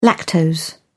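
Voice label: "lactose", British English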